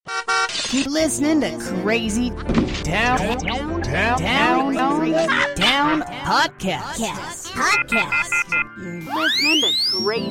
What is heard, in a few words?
podcast